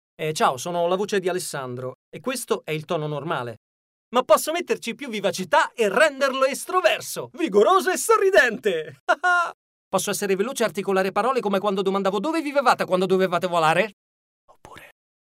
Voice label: Male Italian